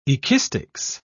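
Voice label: "ekistics", British English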